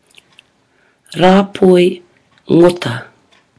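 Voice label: "rapoi ngota", Māori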